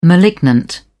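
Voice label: "malignant", English, uk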